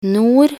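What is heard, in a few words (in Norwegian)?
"nord", Norwegian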